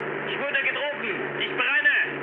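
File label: German on fire mood med, speech; War Thunder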